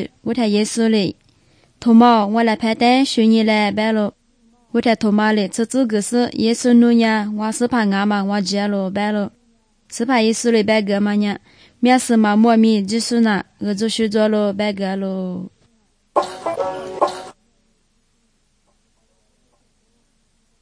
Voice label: good news lisu southern, drama